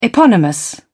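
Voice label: "eponymous", British English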